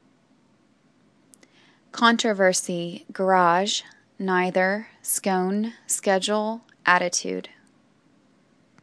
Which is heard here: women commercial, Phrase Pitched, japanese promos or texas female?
texas female